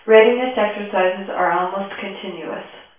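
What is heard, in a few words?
female reverberant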